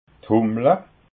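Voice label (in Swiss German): "hummla", Alsatian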